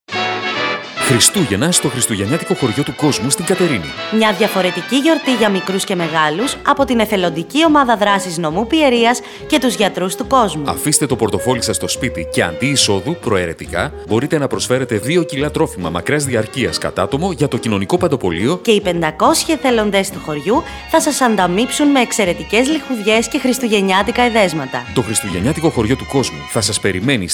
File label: CHRISTMAS RADIO SPOT; optikoakoystiko yliko gia ta mme